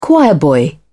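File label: "choirboy", British English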